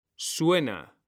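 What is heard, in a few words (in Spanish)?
"suena", Spanish; mekanta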